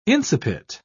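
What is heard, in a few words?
"incipit", British English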